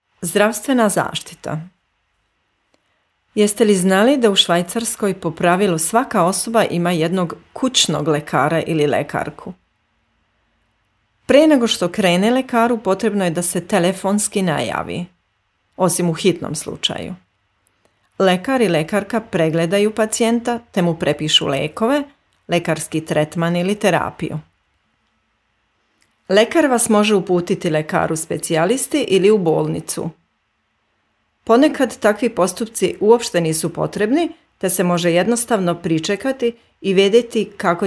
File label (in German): serb